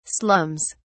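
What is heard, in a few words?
"slums", English, British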